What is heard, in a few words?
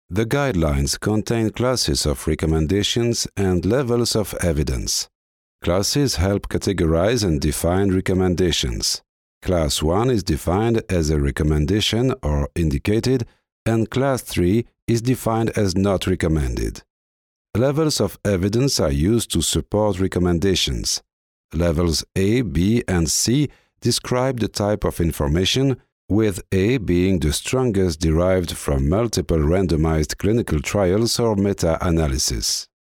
ENG eLearning Medical